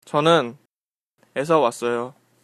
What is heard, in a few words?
i'm from, Korean